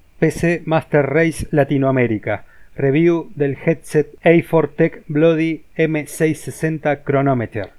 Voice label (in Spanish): Test de Microfono Bloody M660